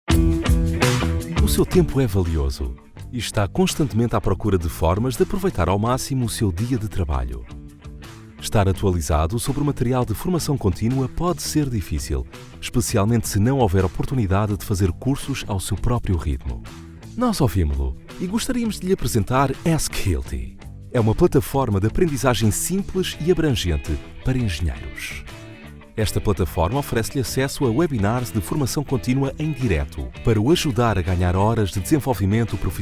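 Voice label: Portuguese corporate; portugisisk-europa, male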